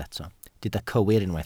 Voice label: "cywir", Welsh